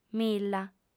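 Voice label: "milla" Sardinian, female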